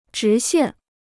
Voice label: "直线", Chinese

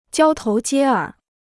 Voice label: "交头接耳", Chinese

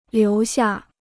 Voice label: "留下", Chinese

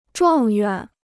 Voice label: "状元", Chinese

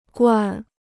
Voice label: "惯", Chinese

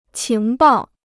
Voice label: "情报", Chinese

